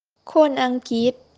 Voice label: english british